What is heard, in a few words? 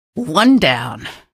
kill, female; episodic; episode 1; Source engine